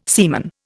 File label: "seaman", Indian